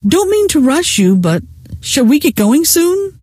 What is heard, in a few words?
should we go, scientist female